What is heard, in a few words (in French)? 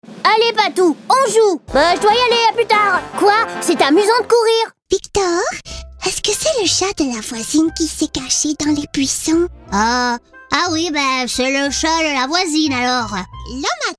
Voix de personnages